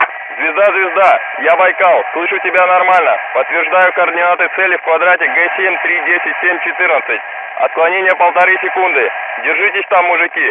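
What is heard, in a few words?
voice soviet infantry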